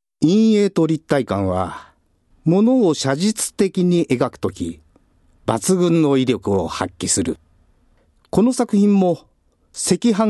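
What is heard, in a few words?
male voice, narration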